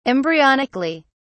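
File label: "embryonically", British English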